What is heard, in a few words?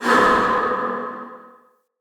Wandering Ghost Soldier Pain; Enemy; Vocals